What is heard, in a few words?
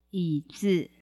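yizi, chair, mandarin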